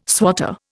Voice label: "swatter", Indian